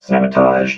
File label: "sabotaged", vox robot